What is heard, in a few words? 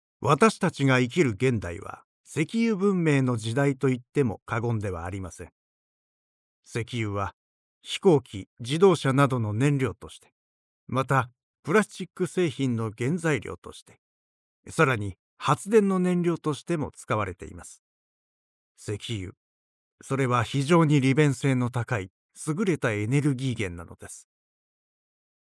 male